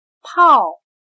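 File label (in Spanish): "泡", chino mandarin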